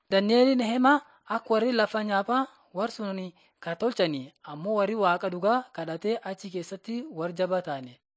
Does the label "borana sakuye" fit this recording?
Yes